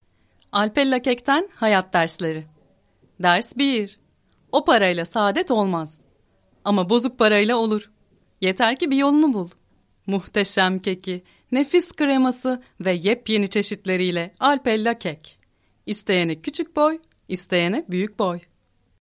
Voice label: Turkish Ad, Female; ALPELLA KEK